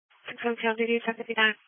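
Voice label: Police Patrol (Dispatch)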